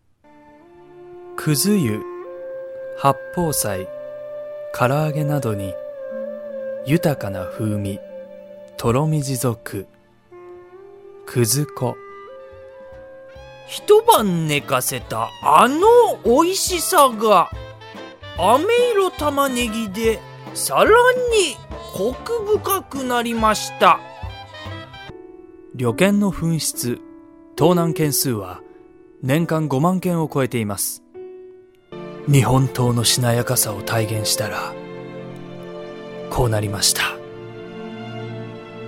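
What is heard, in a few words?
Japanese, General; GoLocalise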